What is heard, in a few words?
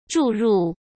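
"注入", Mandarin Chinese; hsk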